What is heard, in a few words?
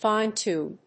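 "fine-tune", English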